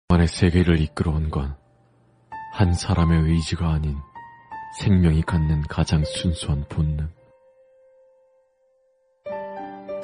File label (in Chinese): 韩语男声 舒缓风格